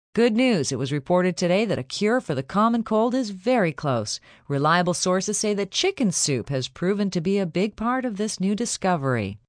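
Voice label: News Cure F, Broadcast, news, female news item on light health, cure for common cold.; human voice speaking; VoiceBox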